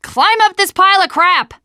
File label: gravel hill, teengirl